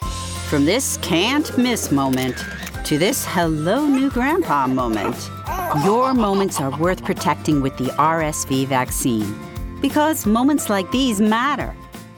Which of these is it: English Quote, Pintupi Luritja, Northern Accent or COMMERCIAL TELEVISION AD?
COMMERCIAL TELEVISION AD